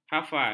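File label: "hafal", Malay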